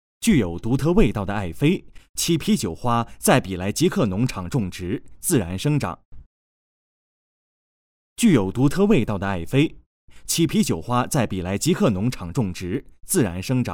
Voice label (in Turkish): Japonca Erkek